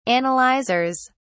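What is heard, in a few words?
"analyzers", British English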